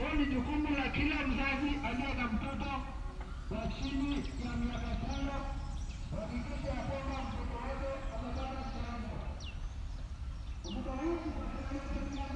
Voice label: Polio vaccine, Tangazo: Polio vaccine announcement via megaphone